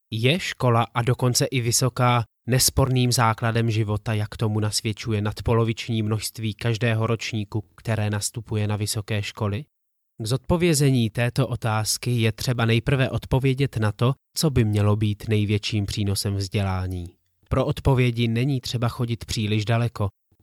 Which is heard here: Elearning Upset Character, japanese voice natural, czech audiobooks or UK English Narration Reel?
czech audiobooks